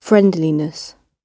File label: "friendliness" british